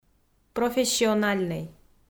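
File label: "профессиональнӧй", Komi, female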